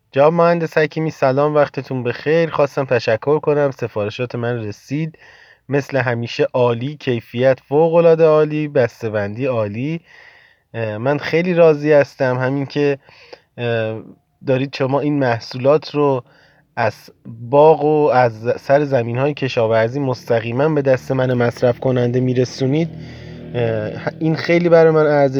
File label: Voice Happy Customer